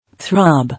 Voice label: "Throb", English